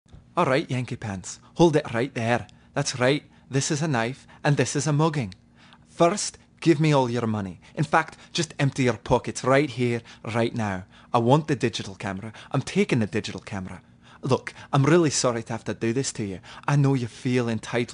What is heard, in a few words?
Scottish accent; the mugging